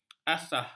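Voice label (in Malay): "asah", Malay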